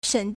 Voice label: "shen3", Chinese